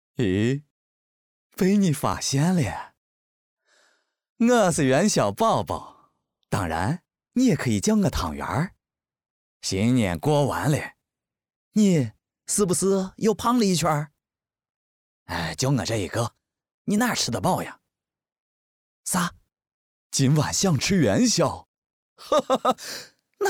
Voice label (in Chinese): 方言 MG动画 逗比 陕西话; 元宵宝宝